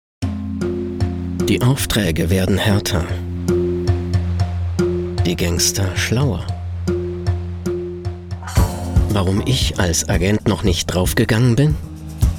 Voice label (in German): Werbung; Babysitter